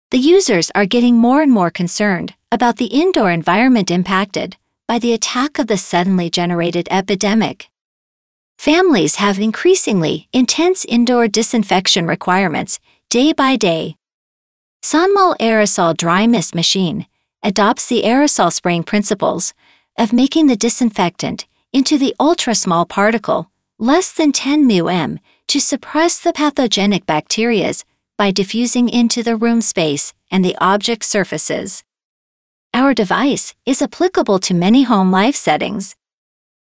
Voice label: 外籍女英 外语 美式英语

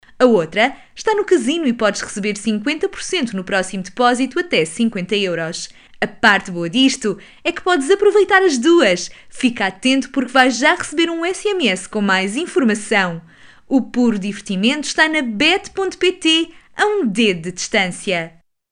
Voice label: 葡萄牙语女 外语 小语种

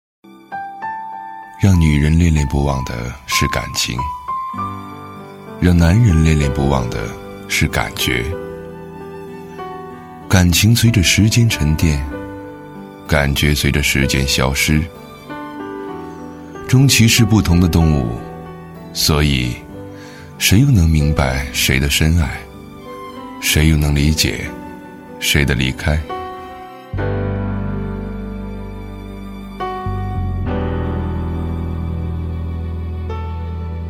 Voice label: 旁白 感情

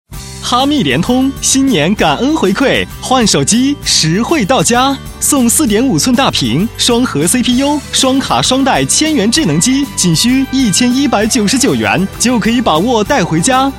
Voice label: 广告 手机通信 年轻, 男国; 哈密联通

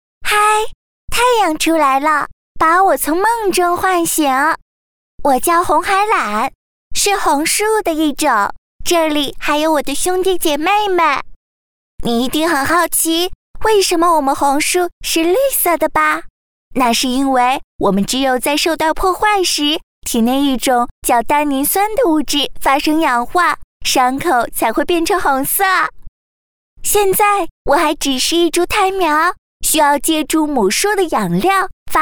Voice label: 动画 童声 女童, 女国

